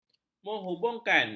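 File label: "menghubungkan", Malay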